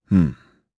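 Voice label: Vox, voices, Japanese; Lusikiel; kings raid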